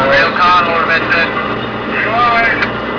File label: radio; Caiman